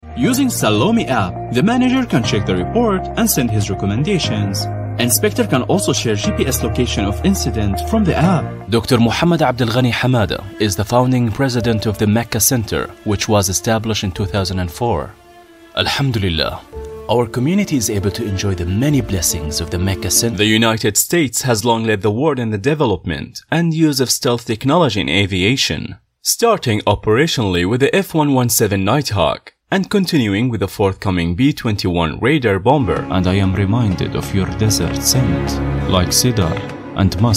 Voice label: arabic male montage